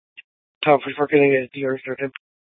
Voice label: Dispatch; Scottsdale